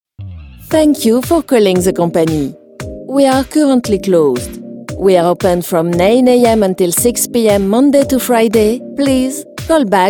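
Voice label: en, France, female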